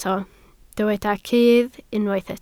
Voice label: "cudd", Welsh

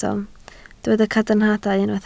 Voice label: "cadarnhadau", Welsh

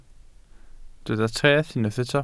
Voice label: "treth", Welsh

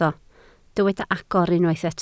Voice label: "agor", Welsh

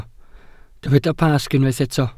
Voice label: "Pasg", Welsh

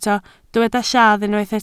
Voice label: "lladd", Welsh

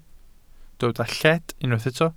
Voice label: "lled", welsh

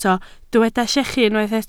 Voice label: "llechu", Welsh

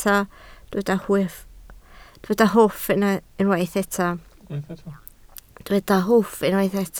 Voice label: "hwff", Welsh

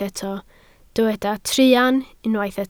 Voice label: "truan", Welsh

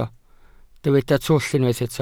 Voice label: "twll", Welsh

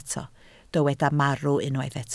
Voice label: "marw", Welsh

